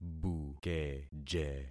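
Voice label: "bukeje", concatenated syllables; Affixing experiment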